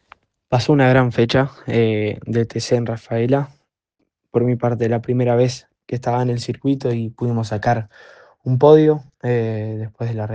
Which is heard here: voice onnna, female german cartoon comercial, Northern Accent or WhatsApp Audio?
WhatsApp Audio